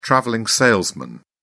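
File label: "travelling salesman", British English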